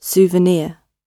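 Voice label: "souvenir" British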